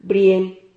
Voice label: "brien", Silabas

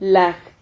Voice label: "laj", Silabas

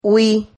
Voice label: "hui", Silabas

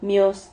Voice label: "mios", Silabas

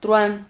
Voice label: "truhan", Silabas

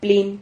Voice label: "plin", Silabas

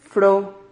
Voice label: "fro", Silabas

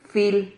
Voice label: "fil", Silabas

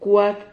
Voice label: "cuad", Silabas

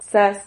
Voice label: "xas", Silabas

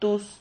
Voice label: "tuz", Silabas

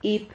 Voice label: "hip", Silabas

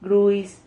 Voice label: "gruis", Silabas

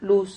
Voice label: "luz", Silabas

